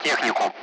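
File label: Speech, Russian; Target; Allied Flight; DCS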